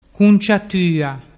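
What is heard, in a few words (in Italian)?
"cunciatua", Vernazzese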